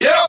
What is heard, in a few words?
"yo", Voice